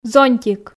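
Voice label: "зонтик", Komi, female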